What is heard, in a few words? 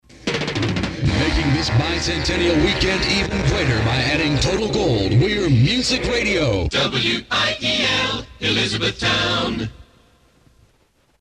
Promo